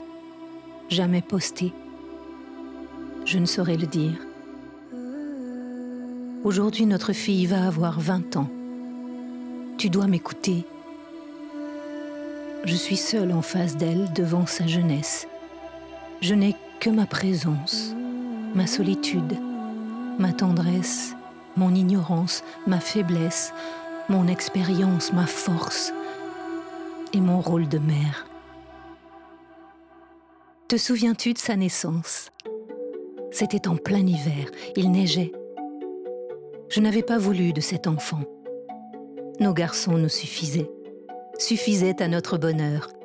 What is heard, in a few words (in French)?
Lettre VOIX OFF maman